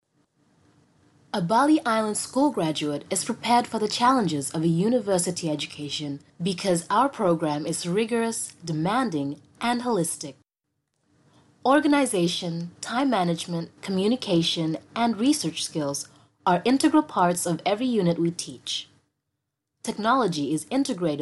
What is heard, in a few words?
English Formal